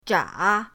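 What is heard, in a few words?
"zha", Mandarin pinyin, third tone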